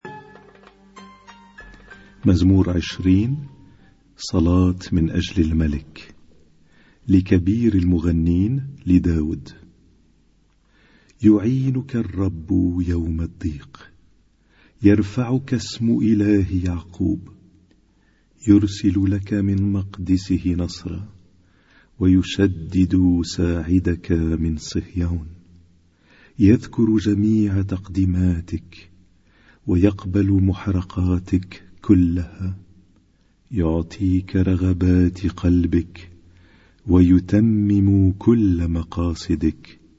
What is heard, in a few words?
Arabic Psalm Zaboor